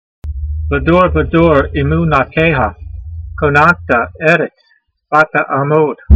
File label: voice, hebrew language; Psalm 119:90; ledor vador emoo'nahteyha, conantah e'rets vata'a'mod